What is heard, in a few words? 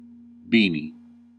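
"beanie", US English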